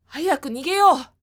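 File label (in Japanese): 女性 hayaku nigeyou; クール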